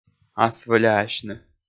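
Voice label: "асвӧляасьны", Komi, male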